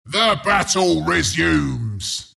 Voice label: announcer unpause; Bristleback announcer

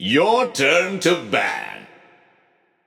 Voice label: Announcer Draft Your Ban; Paladins; Bomb King

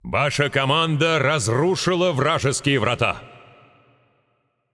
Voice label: Announcer Russian Match Destroyed Enemy Gate

